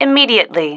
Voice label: "immediately", female